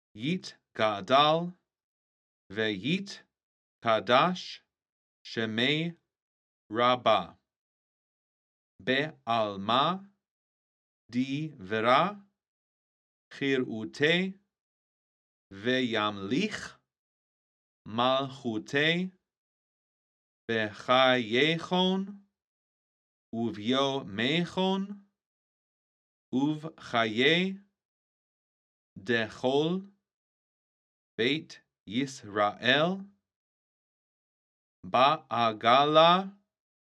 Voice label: Chatzi Kaddish Spoken